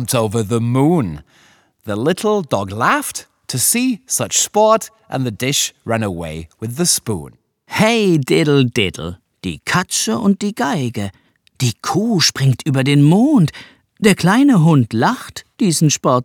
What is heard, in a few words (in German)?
Englisch, kinderverse